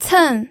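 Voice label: "cen", Mandarin Chinese, fourth tone; pinyin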